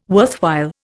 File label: "worthwhile", Indian